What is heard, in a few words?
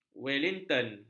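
"wellington", Malay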